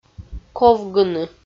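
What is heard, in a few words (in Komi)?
"ковгыны", Komi, female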